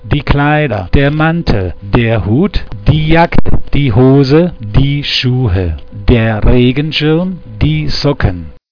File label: german clothes